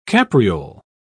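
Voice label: "capriole", English, British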